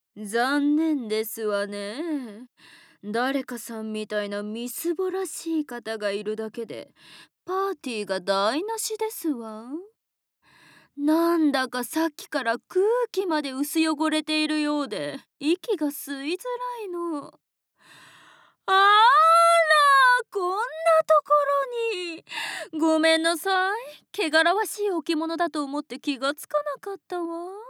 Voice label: Woman